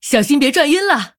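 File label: Female, Chinese; Human; zh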